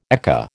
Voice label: "ekka", American

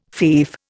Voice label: "fief", Indian

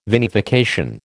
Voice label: "vinification", American

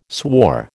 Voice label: "swore", American

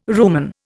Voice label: "rumen", Indian

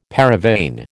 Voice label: "paravane", American

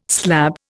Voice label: "slab", Indian

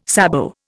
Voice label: "sabot", Indian

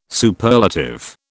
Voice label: "Superlative", British

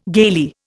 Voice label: "gaily", Indian

